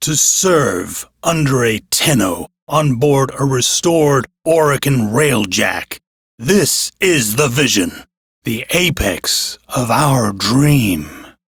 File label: Crew Hired, Male, en; Warframe; Railjack Crew; Arbiters Hexis